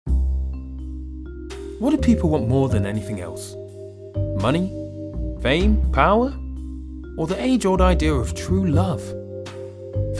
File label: Documentary Voice